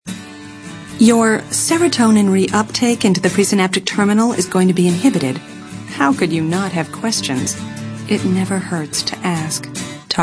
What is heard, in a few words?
female, late30s early40s clean